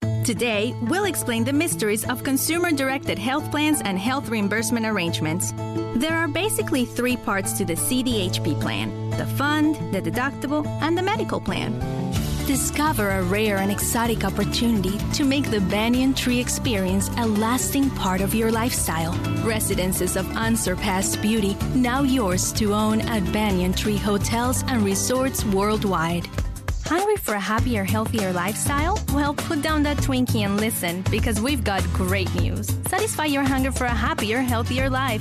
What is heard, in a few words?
EN SP Accent